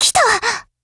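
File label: Meet, Japanese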